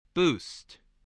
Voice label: "boost", spelling

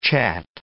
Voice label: "chat", English

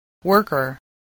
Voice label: "worker", voice, english

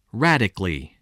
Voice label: "radically", english

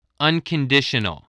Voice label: "unconditional", English spelling voice

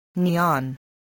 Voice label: "neon", voice

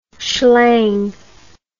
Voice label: "schlang", English

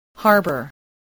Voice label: "harbour", English, spelling

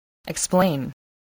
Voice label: "explain", voice

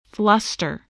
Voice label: "fluster", voice

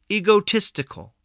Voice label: "egotistic", English

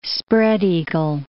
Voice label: "spread-eagle", English

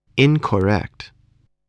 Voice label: "incorrect", spelling

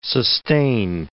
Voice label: "sustain", English word

